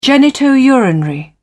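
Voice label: "genito urinary", British English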